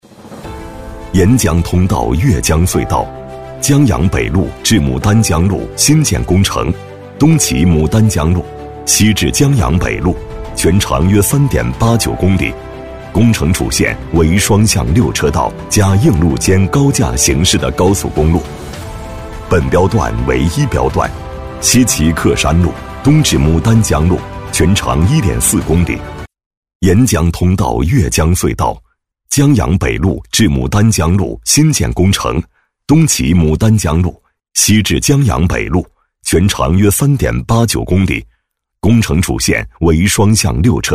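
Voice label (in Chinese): 男【宣传片】; 沿江通道越江隧道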